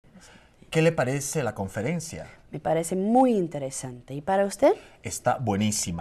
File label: greetings dialogue; novice